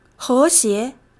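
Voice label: "héxié", Chinese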